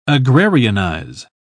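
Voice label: "agrarianize", British English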